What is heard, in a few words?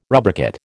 "rubricate", American